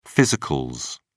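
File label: "physicals", British English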